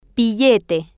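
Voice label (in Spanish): "billete", Spanish, female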